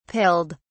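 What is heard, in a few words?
"pilled", British English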